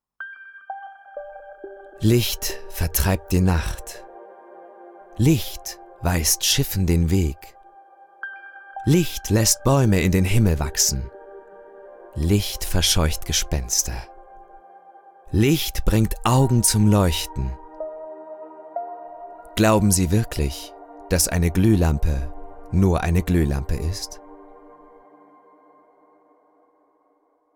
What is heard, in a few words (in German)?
werbung gluehlampe